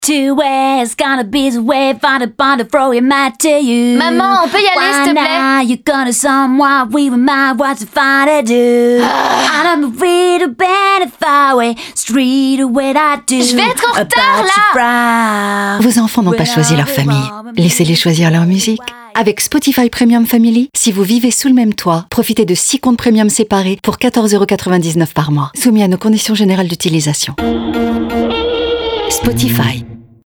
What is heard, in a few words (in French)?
Radio; SPOTIFY